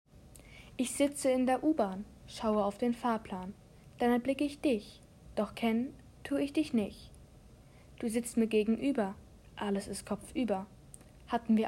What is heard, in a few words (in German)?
Audio Gedicht